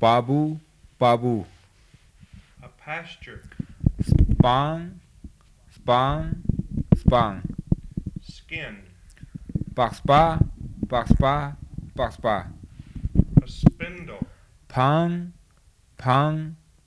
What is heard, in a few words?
Ladakhi